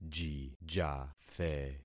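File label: "jijathe", concatenated syllables; Affixing experiment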